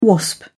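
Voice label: "wasp", British English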